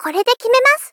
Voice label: Polite Critical Korede kime masu; Rei Yumesaki